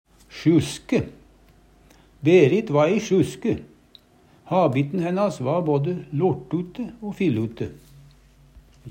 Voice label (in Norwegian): sjuske, Numedalsmål; Substantiv hokjønn